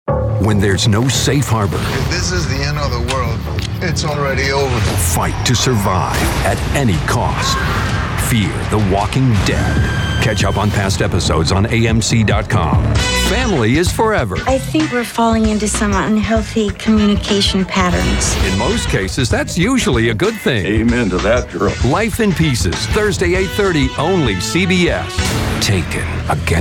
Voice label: Promos